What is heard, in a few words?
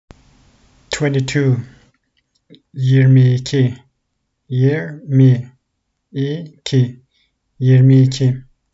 twenty ywo 22, Turkish; the talking turkish phrasebook